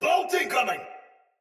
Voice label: Shoot Arrow Soldier Sword Agent Male, Battle Barks; Sinecure Melee Sword Agent